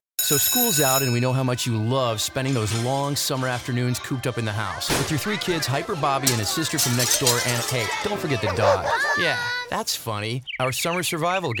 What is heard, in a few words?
TV NEWS very conversational